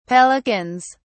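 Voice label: "pelicans", English, British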